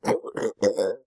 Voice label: Amanita Hurt; oxeyewiki